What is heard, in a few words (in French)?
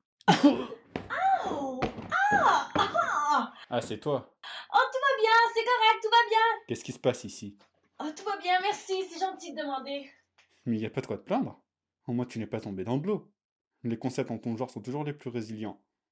voix Agressif